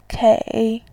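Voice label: "kei", Mandarin Chinese, third tone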